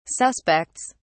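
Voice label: "suspects", British English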